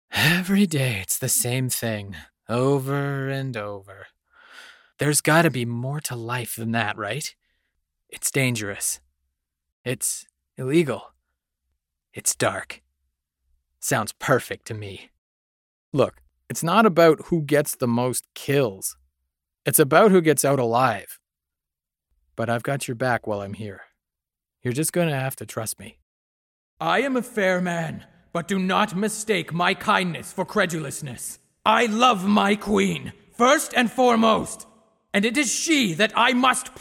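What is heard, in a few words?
English Canadian, general; GoLocalise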